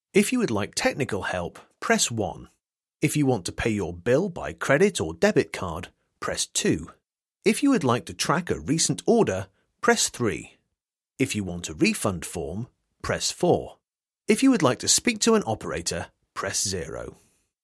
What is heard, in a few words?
ivr; IVR Demo